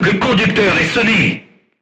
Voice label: voice message driver contused, crew tanks french